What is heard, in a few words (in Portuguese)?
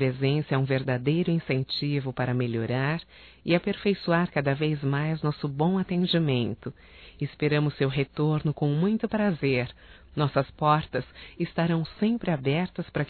CLIENTE FUNC PúBLICO(F); Profissionais; fem; Telemensagens 2017